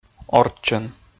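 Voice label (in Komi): "орччӧн", Komi, male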